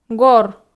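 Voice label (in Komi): "гор", Komi, female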